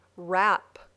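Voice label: "wrap", English word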